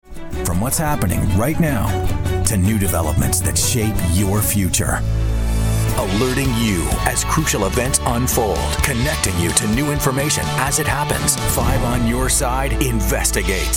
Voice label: tv affiliate news

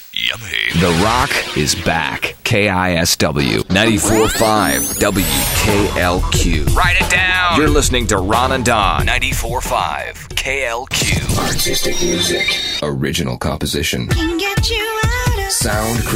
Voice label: Alt, radio imaging

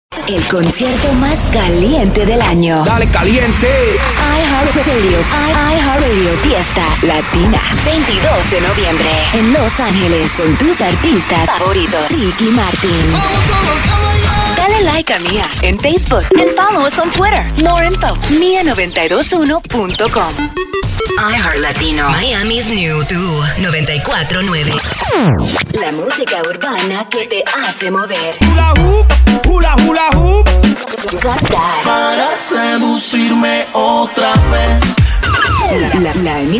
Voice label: radio women spanish imaging

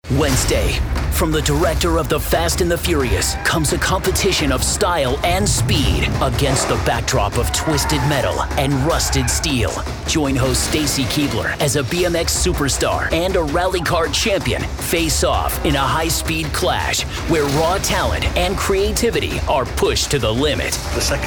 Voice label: radio sports; radio imaging

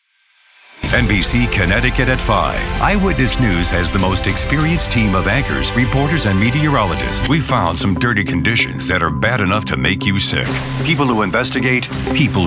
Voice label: tv affiliate men

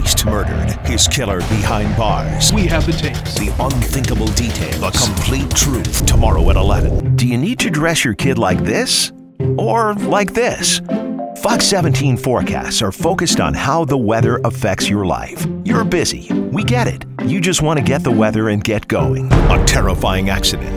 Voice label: tv affiliate men tv news

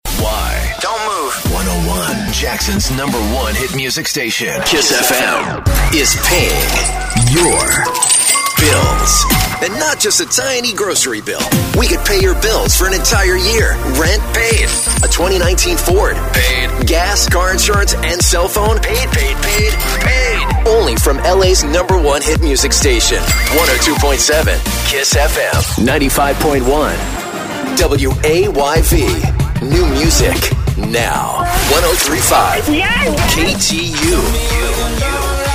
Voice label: radio men; radio imaging

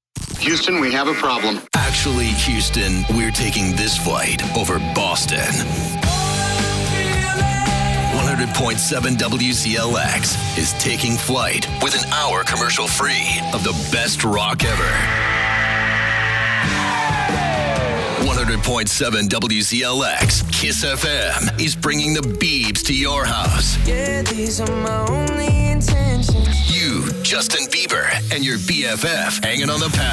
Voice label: radio imaging men

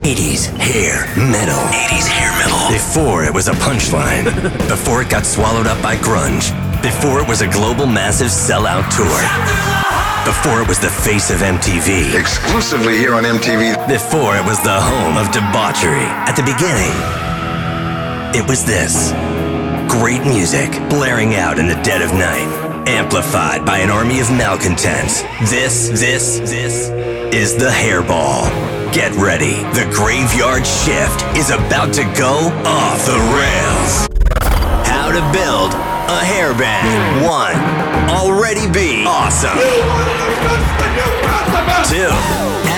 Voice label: radio imaging

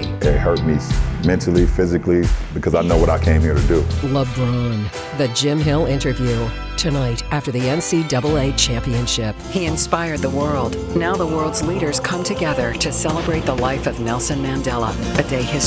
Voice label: tv affiliates women news

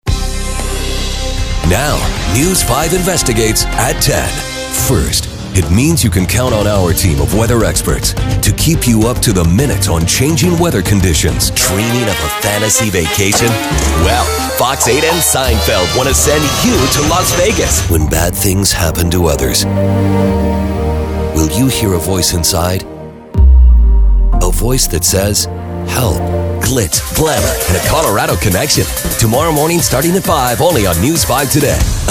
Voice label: tv affiliates men

